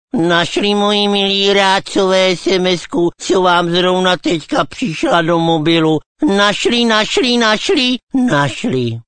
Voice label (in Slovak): sms hlásky cz